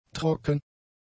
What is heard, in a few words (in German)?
"trocken", German; adjectives